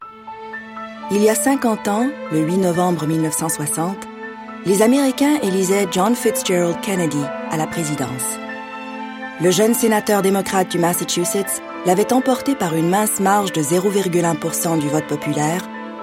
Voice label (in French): narr fr; kennedy